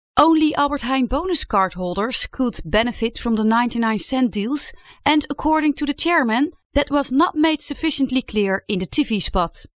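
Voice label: Dutch, female; dutch voice over talent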